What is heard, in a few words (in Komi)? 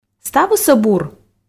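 ставыс-ӧ бур, Komi, female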